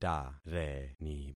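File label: "tareni", concatenated syllables; Affixing experiment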